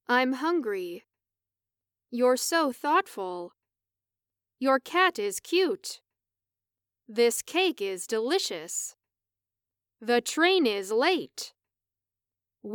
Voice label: Sentences Semi Natural